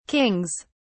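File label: "kings", British English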